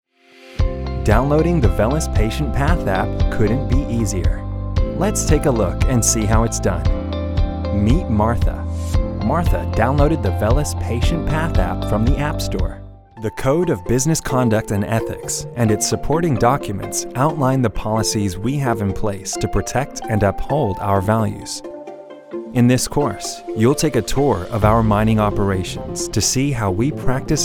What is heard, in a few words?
Corporate